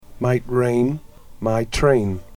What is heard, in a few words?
might rain my train, juncture exercise